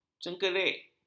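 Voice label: "cengkerik", Malay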